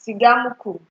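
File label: "cigamuku", Nigerian Pidgin, female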